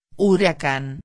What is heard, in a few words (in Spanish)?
"huracan", espanhol; palavra do dia